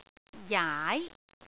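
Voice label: "jaai2", Cantonese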